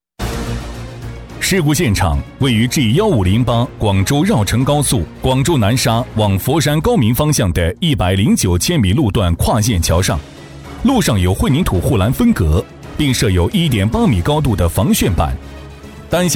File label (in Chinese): 男 专题配音 正气大气; 交通事故; 激情有力; 大气浑厚